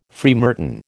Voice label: "freemartin", American